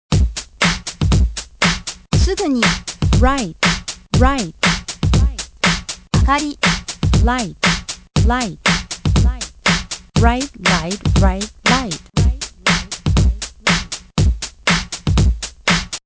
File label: chant; SMOCCA; English; ipod hatsuon exercise